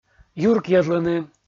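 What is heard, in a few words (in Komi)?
"юркйӧдлыны" Komi, male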